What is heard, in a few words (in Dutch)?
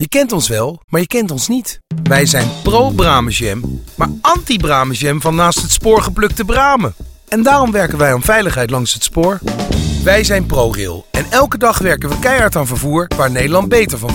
radio commercial campagne; bramen